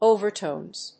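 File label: "overtones", English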